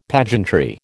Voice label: "pageantry", American